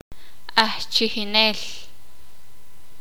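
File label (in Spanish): "guardián", sakapulteko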